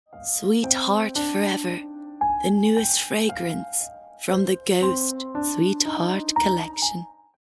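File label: English commercial Irish; GoLocalise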